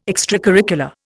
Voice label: "extracurricular", Indian